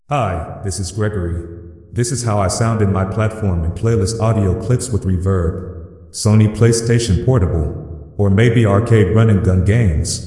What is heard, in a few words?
with Reverb